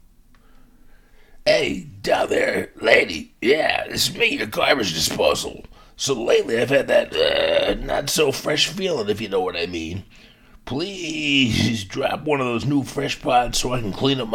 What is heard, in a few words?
Garbage Disposal, Character